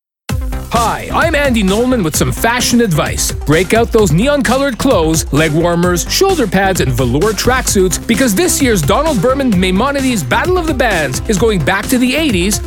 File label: radio spot